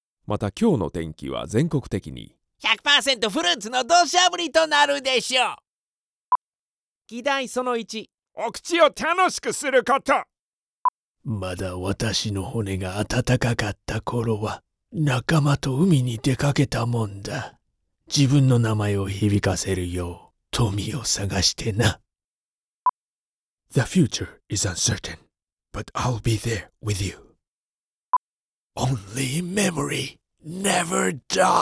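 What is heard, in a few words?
Japanese M Characters, male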